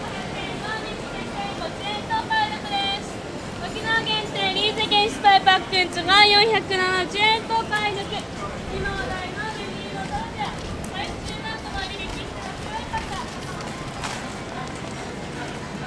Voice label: Okinawa sales lady

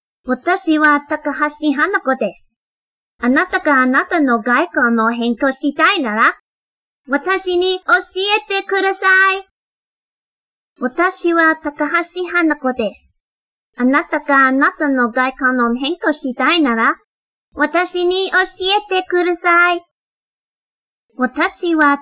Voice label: Japanese Phrase Request

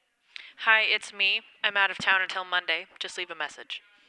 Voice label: Answering Machine Female Out Of Town

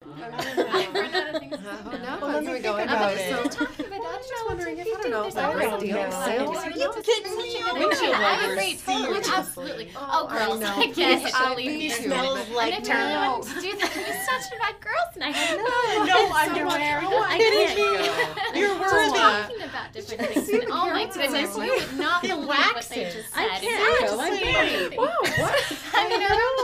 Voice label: Women Talking About Girls Night Out

